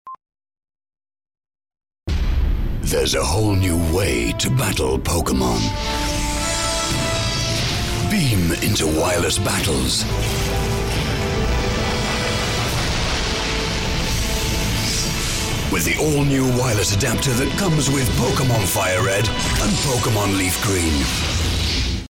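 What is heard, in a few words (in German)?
British englisch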